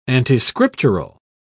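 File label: "antiscriptural", British English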